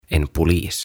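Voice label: "En Polis", Swedish, "Poliisi", Finnish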